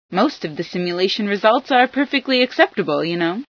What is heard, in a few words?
simulation, female